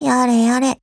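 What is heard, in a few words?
LOW MOOD, Japanese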